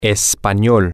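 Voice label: language, Spanish, male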